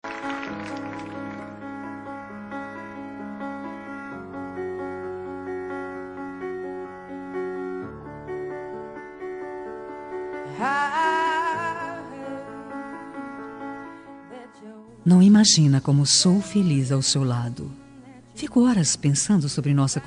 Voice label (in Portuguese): romântica, Telemensagem Romântica GLS – Voz Feminina; LGBT